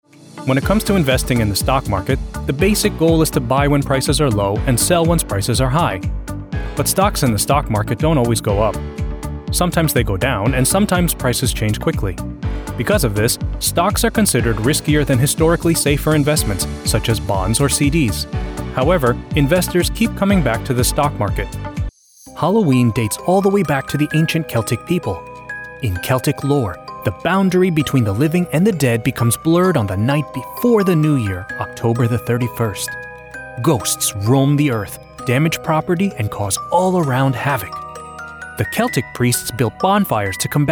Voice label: explainer video whiteboard animation male voice over